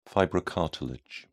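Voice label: "fibrocartilage", English, British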